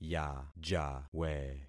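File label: "yajawe", concatenated syllables; Affixing experiment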